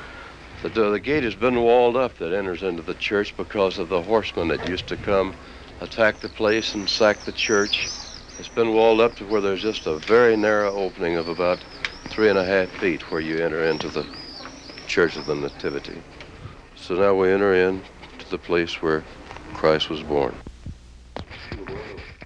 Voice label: In Bethlehem (Narrative)